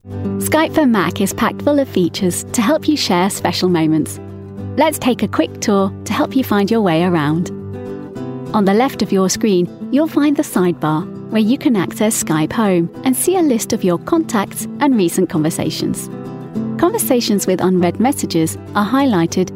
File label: corporate